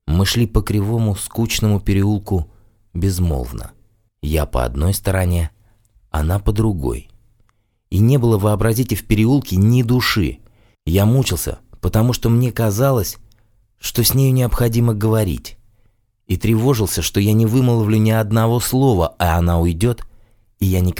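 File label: rasskaz Russian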